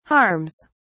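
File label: "harms", British English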